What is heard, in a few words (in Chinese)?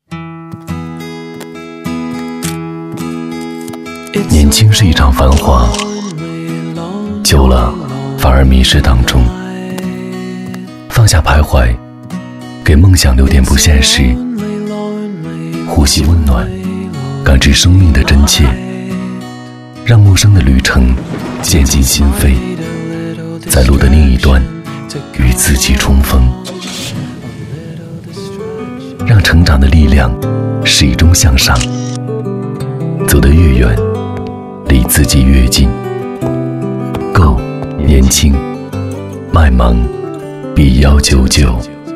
其他 微电影 走心篇, 男国; 走心篇华为麦芒